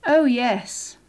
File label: "oh yes", English